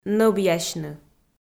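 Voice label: "нӧбъясьны", Komi, female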